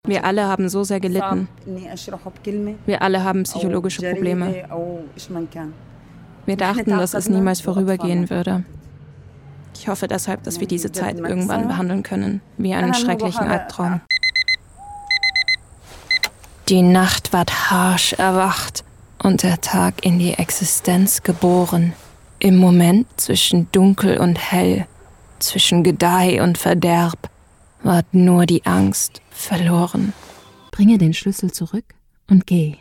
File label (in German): Audiobook High German